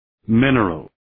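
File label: "mineral", English word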